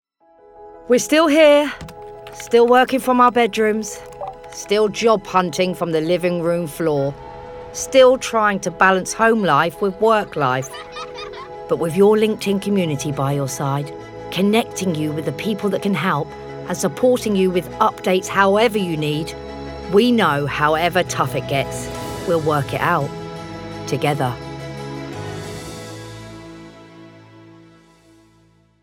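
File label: Down to earth, Warm, English; Linkedin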